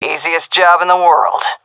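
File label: round start, female; vo; Counter Strike Model Pack; CS:GO; seal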